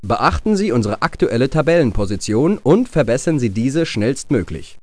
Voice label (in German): Beachten sie Tabellensituation, GERMAN chairman; swos-soundset